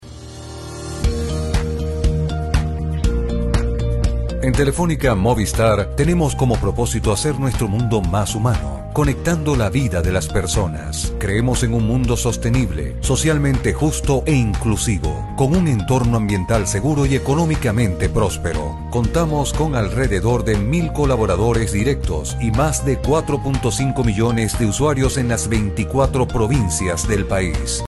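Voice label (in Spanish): corporativo, Venezuela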